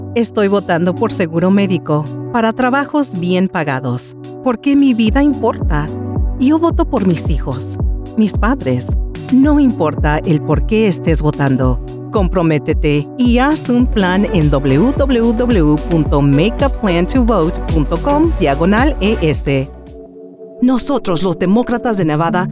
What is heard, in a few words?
Spanish Political, voiceover